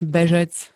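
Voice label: "bežec", Slovak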